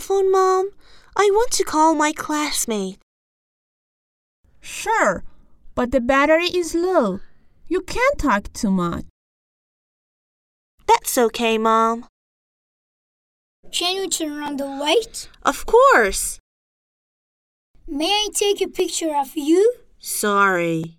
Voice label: conversation